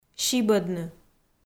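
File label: "сибӧдны", Komi, female; kpv audio dict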